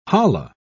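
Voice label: "holla", British English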